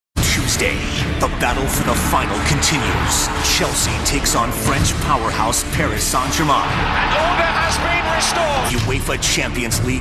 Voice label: promo men sports

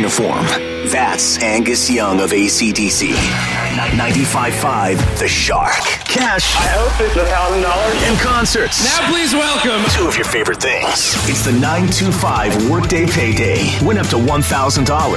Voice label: radio imaging male classic rock

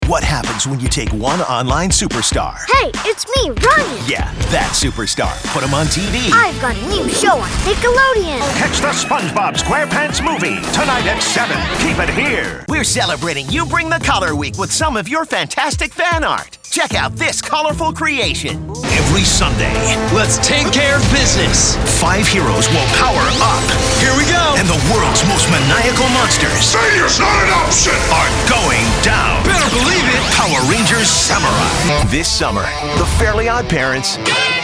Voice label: promo men kids young adult

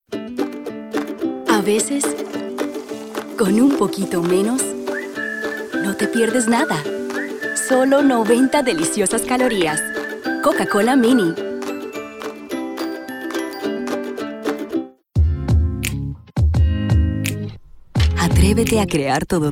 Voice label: languages spanish

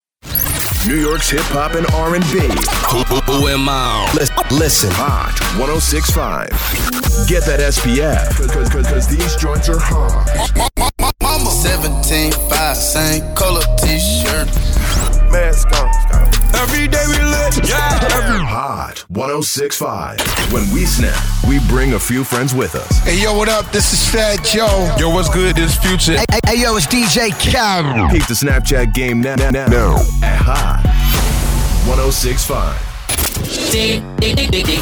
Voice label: radio male urban; radio imaging

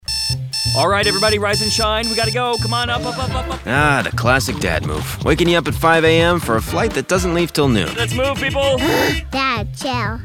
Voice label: commercial men

